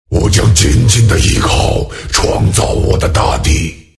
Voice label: respawn, zh; Dota 2